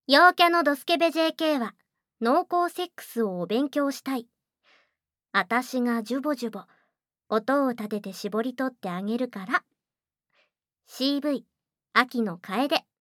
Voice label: タイトルコール

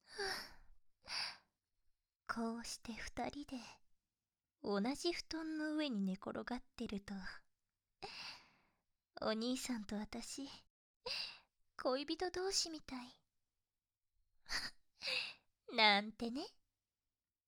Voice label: 囁き添い寝; シベリアンハスキーの新人耳かき師・颯; BGMあり

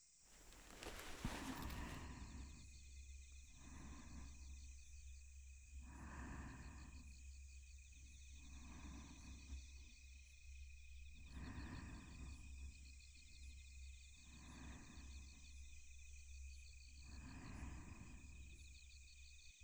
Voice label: 添い寝, ASMR

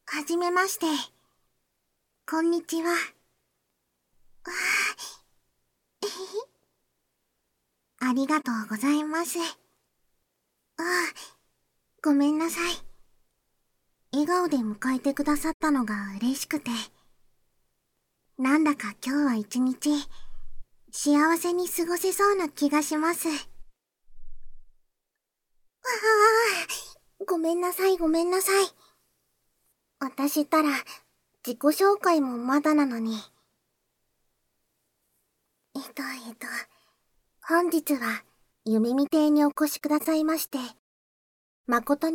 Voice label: バイノーラルマイク収録; 効果音あり

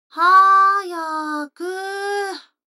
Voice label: 女の子台詞, ASMR

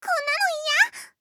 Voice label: こんなのイヤ！, ロリ; ハイグレボイス集

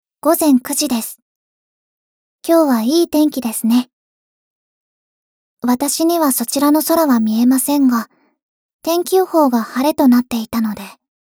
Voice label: 時報ボイス 電脳少女; ボイスコレクション

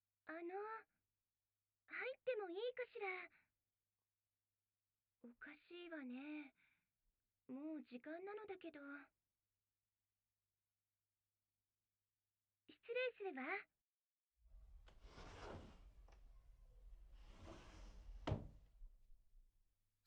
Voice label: ささやいて, Voice; ずっとささやいていなければダメ?

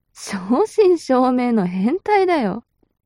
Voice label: mob 女子 台詞

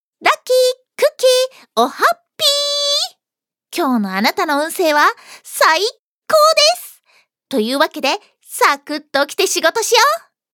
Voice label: ハイテンション, ハイテンションな女の子

